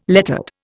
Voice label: "lettered", Indian